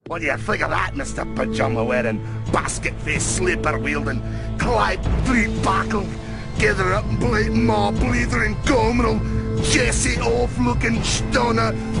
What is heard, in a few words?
Angry Scotsman